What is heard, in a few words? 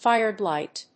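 "fire blight", English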